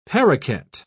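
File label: "paroquet", British English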